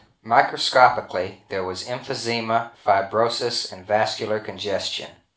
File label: male inv 16k